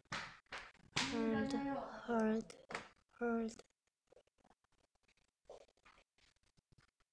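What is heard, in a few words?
"hold", spoken word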